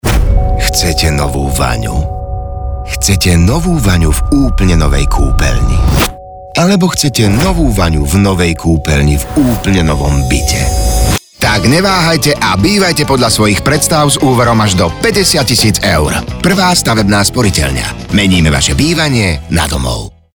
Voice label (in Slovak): radio; vana